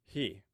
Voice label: "hi", omaha language

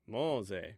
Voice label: "monze", omaha language

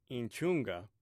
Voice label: "inchunga", omaha language

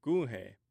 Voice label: "kuhe", Omaha

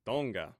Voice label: "tonga", Omaha

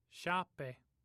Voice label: "shape", Omaha

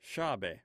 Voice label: "shabe", Omaha

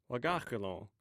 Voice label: "wagaxthon", Omaha

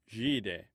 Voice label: "zhide", Omaha

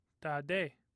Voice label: "tade", Omaha

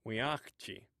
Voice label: "winaxci", Omaha

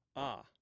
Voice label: "a", Omaha

